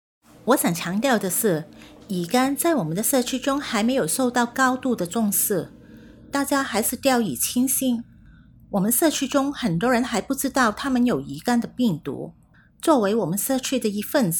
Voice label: Chinese; hepatitis